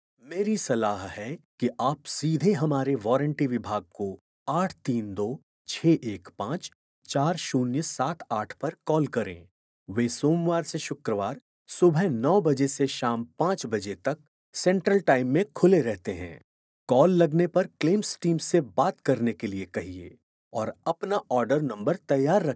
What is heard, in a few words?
male hindi voice over talent narrative